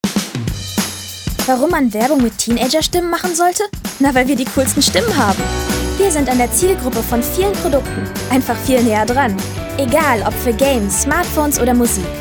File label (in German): Werbung Teenagerstimmen